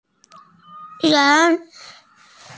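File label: "ran2", Mandarin Chinese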